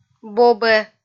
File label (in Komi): "бобӧ" kpv f